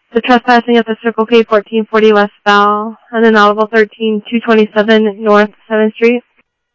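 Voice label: police department, scanner audio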